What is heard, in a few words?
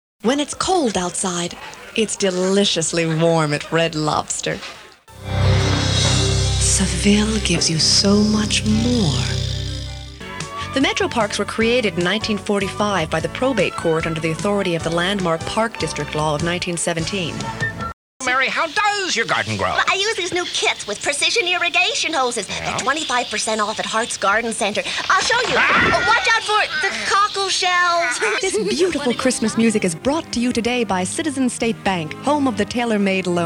Voice Over